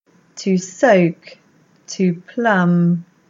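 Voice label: English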